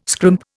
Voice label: "scrimp", Indian